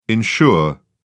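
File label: "insure", British English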